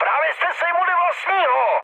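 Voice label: player killed ally, Czech, mood high; War Thunder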